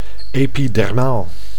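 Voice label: "epidermaal", Dutch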